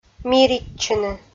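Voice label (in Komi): "миритчыны", Komi, female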